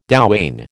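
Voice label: "douane", American English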